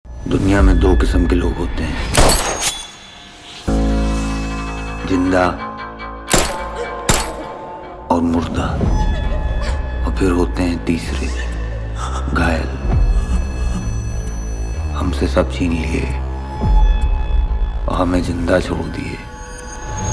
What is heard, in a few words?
Dialogue Ringtone; Mirzapur 2